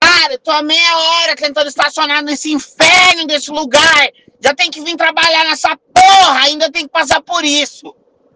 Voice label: rage

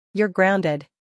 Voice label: girl saying youre grounded

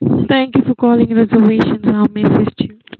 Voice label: woman scammer talking close to mic

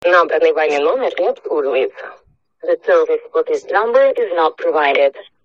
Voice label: russian phone cannot be reached

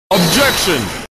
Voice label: objection english voice clip; godot

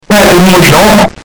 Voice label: ok good morning all loud

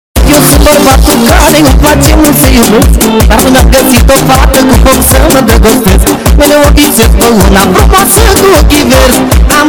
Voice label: bass boost

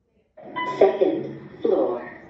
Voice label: otis lift 2nd floor

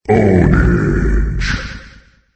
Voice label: announcer ownage; dota

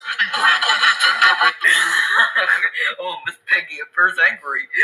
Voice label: appears angry; miss piggy

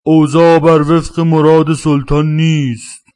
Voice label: anger

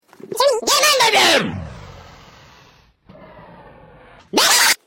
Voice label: hush funny sound

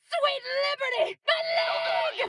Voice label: female sweet liberty my leg

